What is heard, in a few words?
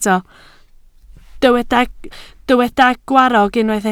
"gwarrog", Welsh